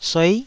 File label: "suei9", taiwanese Syllables